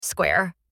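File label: "square", voice; matching game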